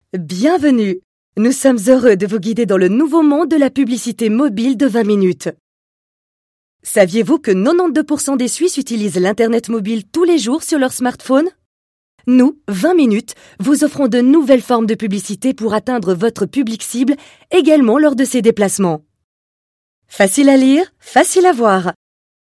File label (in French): OFF CH FR; franzoesisch schweiz